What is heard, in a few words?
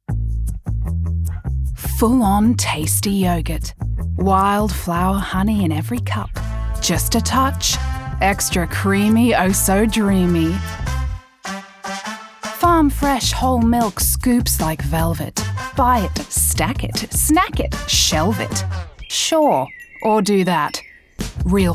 Aus Commercial Script; Noosa